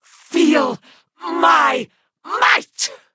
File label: mage attack; kynval